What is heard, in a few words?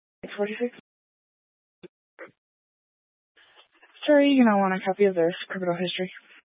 Sheriff Dispatch; Mesa County